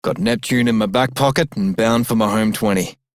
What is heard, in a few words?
Male Radio, en; Dialog; Warframe; Solaris Venus